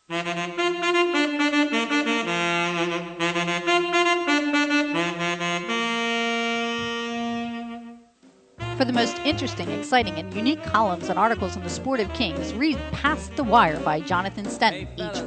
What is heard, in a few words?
commercial; Past the Wire